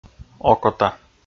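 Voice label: "окота", Komi, male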